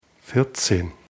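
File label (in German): "vierzehn", German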